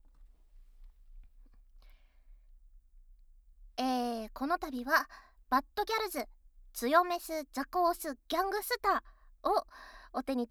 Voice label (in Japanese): フリートーク